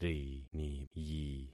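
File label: "riniyi", concatenated syllables; Affixing experiment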